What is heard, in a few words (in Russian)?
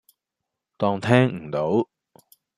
Голоса, Гонконгский